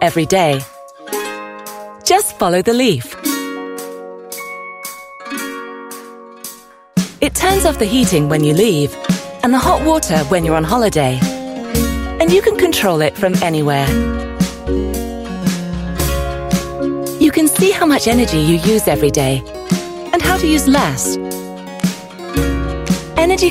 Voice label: UK English Nest Learning Thermostat

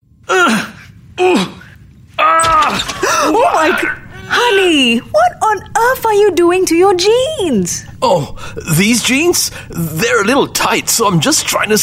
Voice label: Asian English F

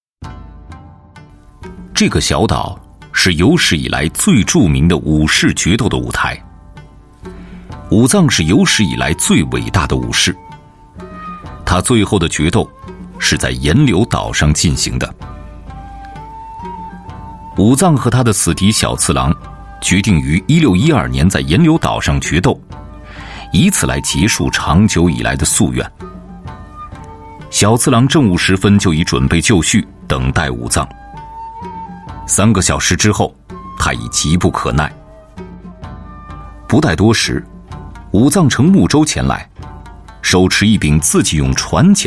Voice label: Mandarin China historical documentary

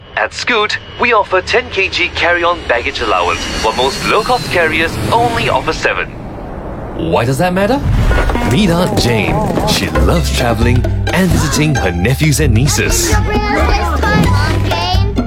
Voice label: Asian English Commercial Conversational; Scoot

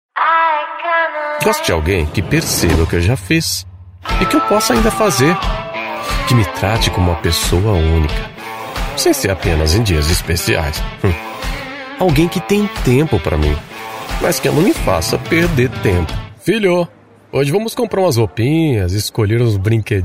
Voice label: Brazilian Portuguese Female Commercials

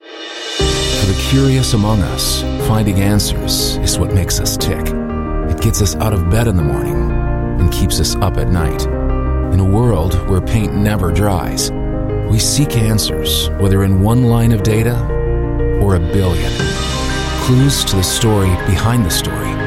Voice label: US English; SAP

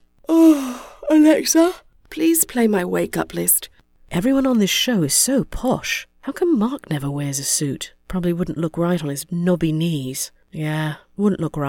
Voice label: US English British Sample

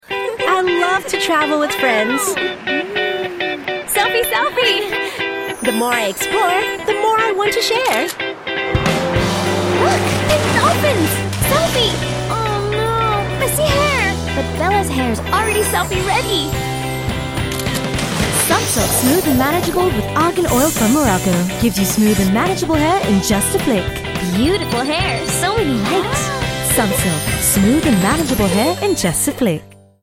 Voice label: Asian English; Sleek Selfie Pink

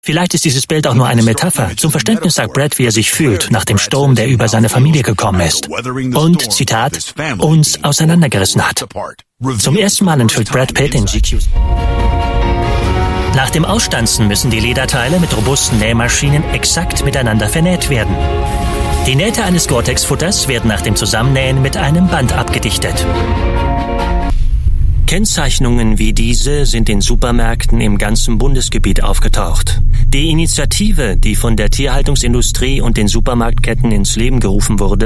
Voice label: German

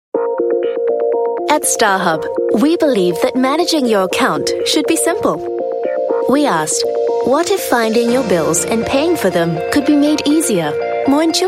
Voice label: Asian English VO Corporate

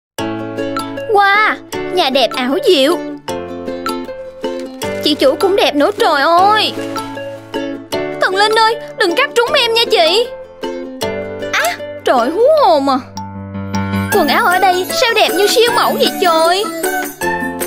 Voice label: Vietnamese South comfort female

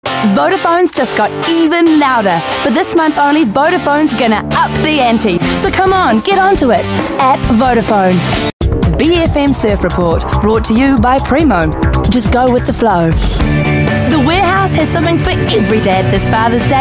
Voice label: NZ English

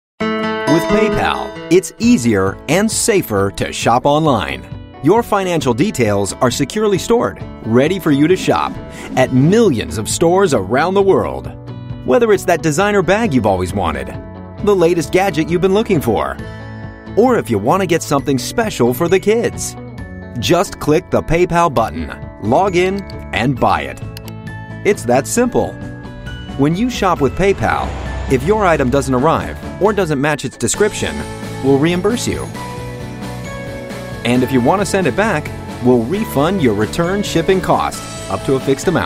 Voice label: US English, Male; Use PayPal to Buy Online

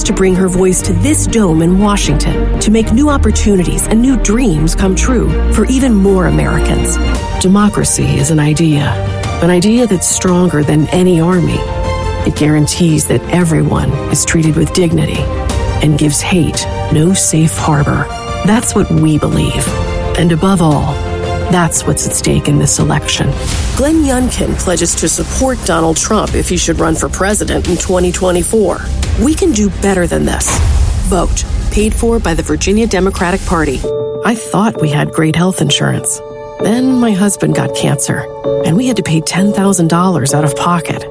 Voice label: US English Political

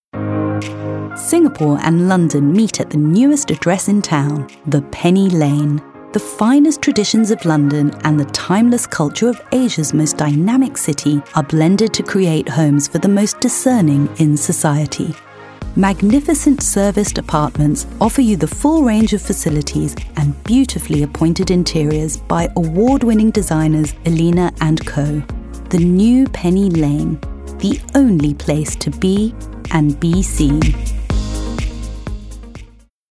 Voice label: UK English Property

